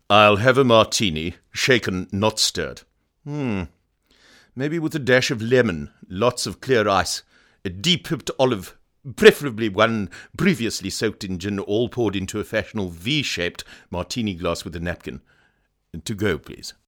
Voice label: chatty brit